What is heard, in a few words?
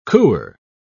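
"cooer", British English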